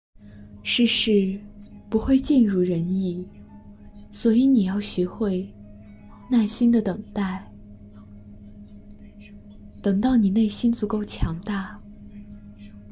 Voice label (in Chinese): 温柔治愈 耐心等待; 富察皇后